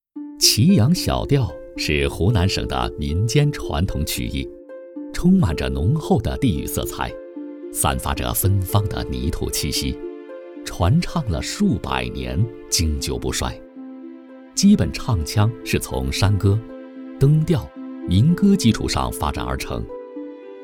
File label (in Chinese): 文化类解说词; 祁阳小调解说词